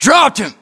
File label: inform killfirm infantry, battlechatter, English, US; localized english